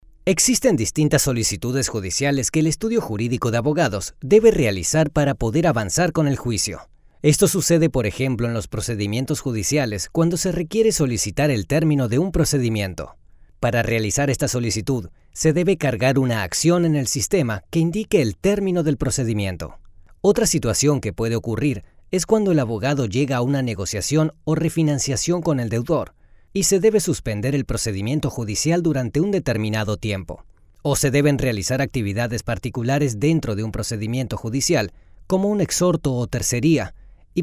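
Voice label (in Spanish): e-learning, Mexico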